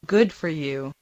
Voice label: Mandarin Conversation